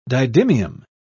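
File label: "didymium", British English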